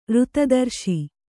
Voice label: "ಋತದರ್ಶಿ", Kannada